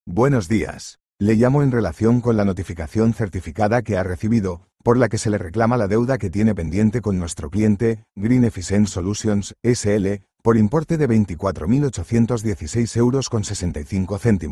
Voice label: audio call